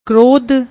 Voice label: "kroadh", Punjabi; ਕ੍ਰੋਧ

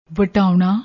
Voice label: "vatouna", Punjabi; ਵਟਾਉਣਾ

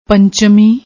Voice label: "panchmi", Punjabi

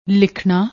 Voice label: "likhnaa", Punjabi

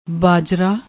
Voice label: "baajra", Punjabi

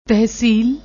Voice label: "tehseel", Punjabi; ਤਹਿਸੀਲ

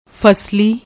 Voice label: "fasli", Punjabi

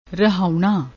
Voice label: "rahauna", Punjabi

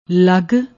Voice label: "lagg", Punjabi

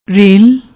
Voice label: "rail", Punjabi; ਰੇਲ

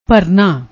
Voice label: "bharna", Punjabi

